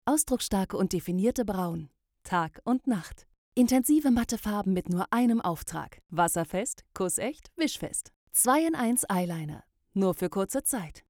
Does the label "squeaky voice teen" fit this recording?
No